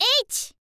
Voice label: "h", info girl voice

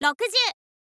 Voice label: "rokuzyuu", girl; voice; info girl

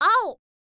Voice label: "ao", girl

